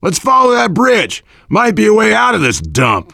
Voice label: goal, voice, biker; Left 4 Dead 2; left4dead2 dlc3; survivor